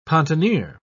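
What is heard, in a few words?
"pontonier", British English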